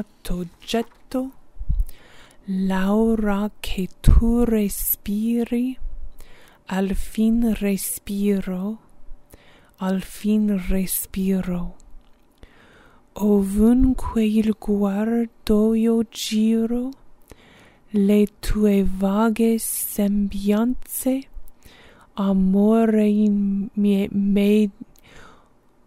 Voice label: o del mio dolce ardor pronunciation